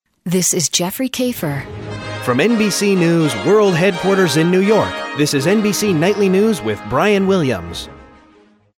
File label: News Open; NBC Nightly News